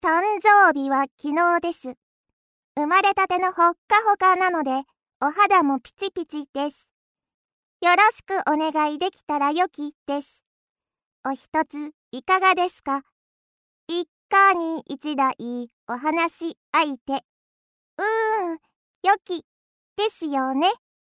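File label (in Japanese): 女性 ロリ 元気; ロボ1; アトリエピーチ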